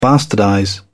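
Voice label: "bastardize", British English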